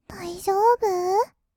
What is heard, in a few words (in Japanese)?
"だいじょーぶ？", kawaii voice; 1200 kawaii voice!!; 色々なワンショット